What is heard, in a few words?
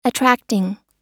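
"attracting" US English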